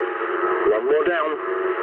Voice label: eng player killed enemy mood low, speech eng

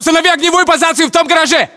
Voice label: order cover garage, ru

